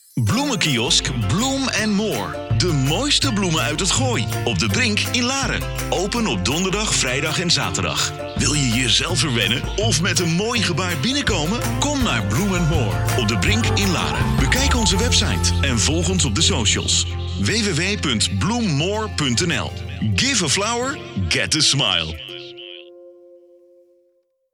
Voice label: Commercial; Flowers More